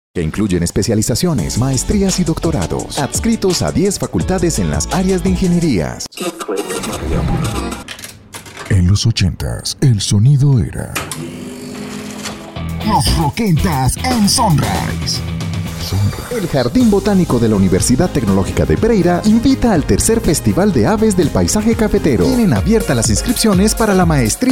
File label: spanish latin american commercial